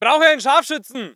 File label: voice ger infantry